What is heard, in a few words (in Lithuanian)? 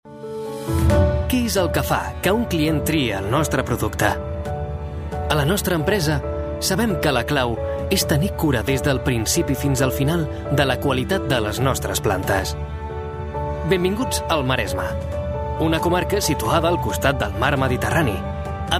Ispanu balsas, vyras